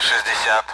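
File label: Speech Sound Russian Common AWACS Numbers; DCS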